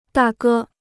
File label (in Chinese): "大哥", Chinese